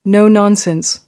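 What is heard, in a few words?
"no nonsense", American English; AmericanBritish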